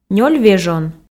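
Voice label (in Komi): нёль вежон, female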